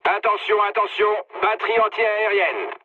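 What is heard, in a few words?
French damaged aa mood med; War Thunder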